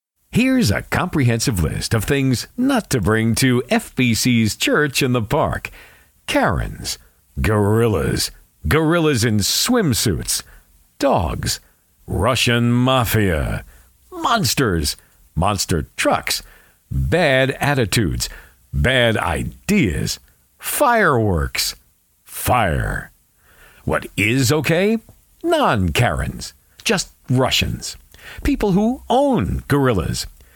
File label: English Voice Over Sample